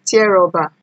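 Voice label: "tear rubber", Nigerian Pidgin, female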